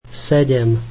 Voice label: slovak seven